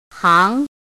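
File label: "háng", Mandarin Chinese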